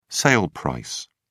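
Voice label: "sale price", British English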